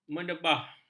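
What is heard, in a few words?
"menebah", Malay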